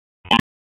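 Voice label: Raleigh Police North District Tactical; wake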